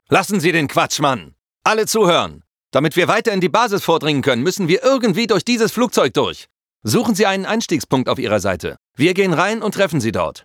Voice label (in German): Officer militaerisch; DE